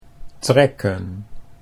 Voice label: "trekken", Dutch; 'to pull'